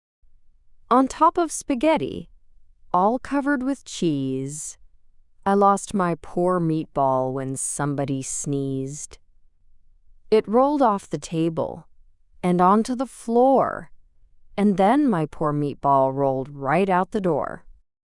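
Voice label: female US, classic rhymes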